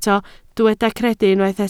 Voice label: "credu", Welsh